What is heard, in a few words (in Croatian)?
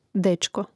"dečko", Hrvatski; mreznik